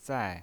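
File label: "zai" Mandarin Chinese pinyin voice, fourth tone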